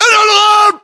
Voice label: direction relative west, localized english battlechatter